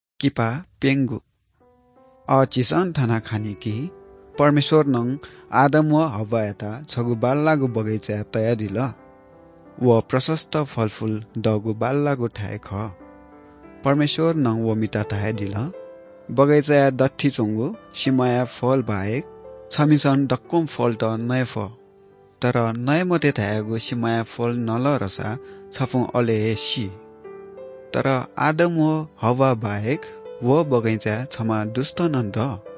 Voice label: good news newari bhaktapur